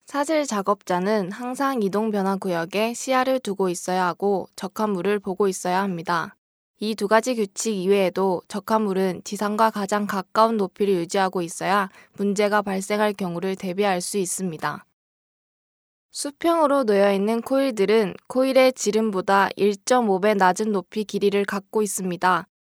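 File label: Korean, female; Professionelle Sprecher und Sprecherinnen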